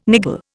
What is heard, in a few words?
"niggle", Indian